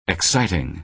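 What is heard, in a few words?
"exciting", American English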